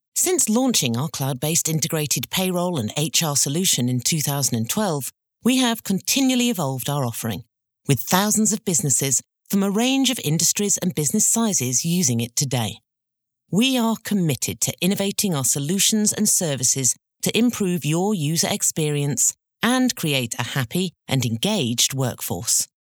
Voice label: standard corporate; tax payroll